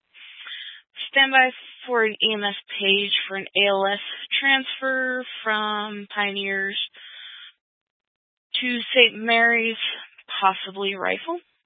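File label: County Fire, Scanner audio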